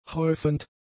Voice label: "häufend", German; adjectives

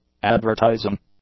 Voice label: "advertising", English; adjectives

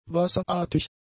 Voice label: "wasserartig", German; adjectives

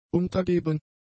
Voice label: "untergeben", German; adjectives

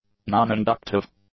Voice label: "non-inductive", english; adjectives

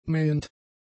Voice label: "mähend", German; adjectives

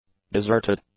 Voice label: "deserted", english; adjectives

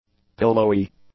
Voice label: "pillowy", english; adjectives

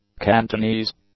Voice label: "cantonese", English; adjectives

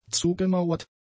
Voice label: "zugemauert", German; adjectives

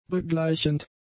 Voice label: "begleichend", German; adjectives

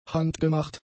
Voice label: "handgemacht", German; adjectives

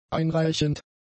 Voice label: "einreichend", German; adjectives

